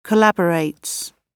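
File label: "collaborates", British English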